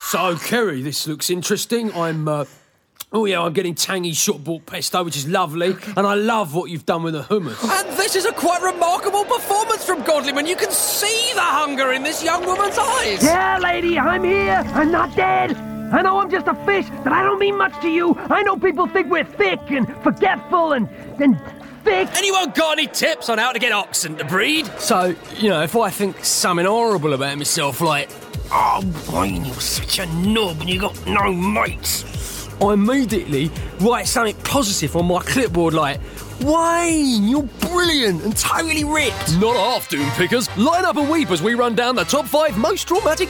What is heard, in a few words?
character